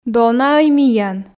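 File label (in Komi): донаӧй миян, Komi, female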